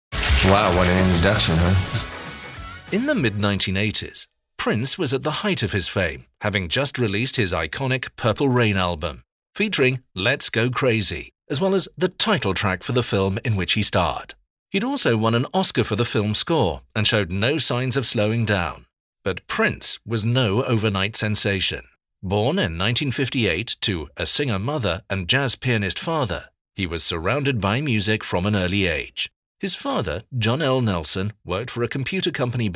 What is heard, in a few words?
english british podcasts